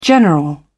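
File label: "general", British English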